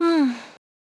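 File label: Vox Deny, Korean; kingsraid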